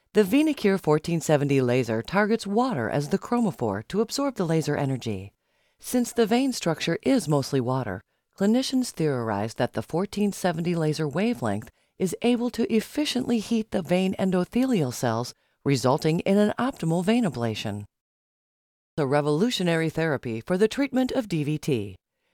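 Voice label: medical narration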